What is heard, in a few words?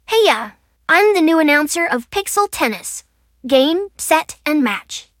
announcer; pixeltennis